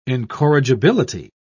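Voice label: "incorrigibility", English, British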